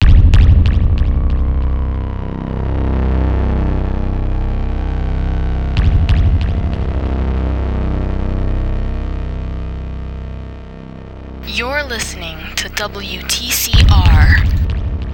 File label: radio id long sample